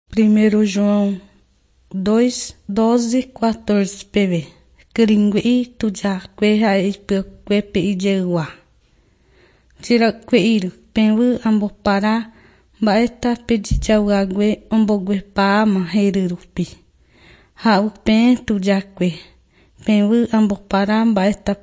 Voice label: john guarani mbya, bible